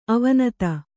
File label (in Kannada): "ಅವಿನೀತ", Kannada